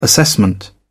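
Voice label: "assessment", British English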